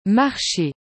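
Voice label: "marche", French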